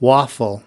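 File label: "waffle", US English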